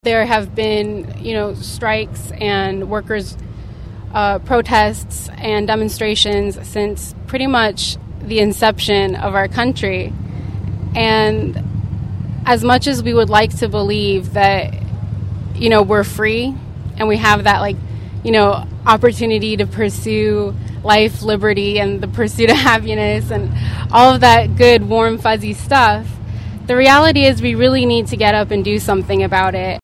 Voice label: Park Protest Female